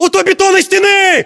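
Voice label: landmark near wall cinderblock, battlechatter, Russian; localized english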